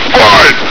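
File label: "fight!", male; hl2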